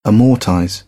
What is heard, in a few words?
"amortize", British; AmericanBritish